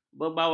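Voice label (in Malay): "bebawang", Malay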